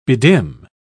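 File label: "bedim", English, British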